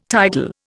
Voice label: "tidal", Indian